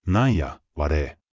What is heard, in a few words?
anime